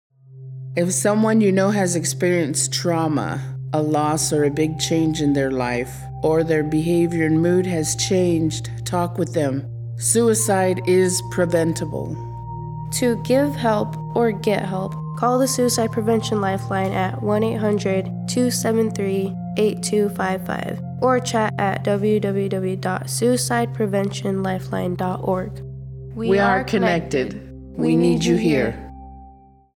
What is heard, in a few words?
Radio PSA